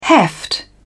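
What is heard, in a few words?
"heft", British English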